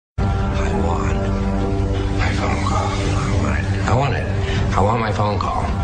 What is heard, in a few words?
Phone Call; Joker